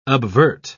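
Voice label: "obvert", British English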